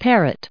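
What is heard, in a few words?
"parrot", English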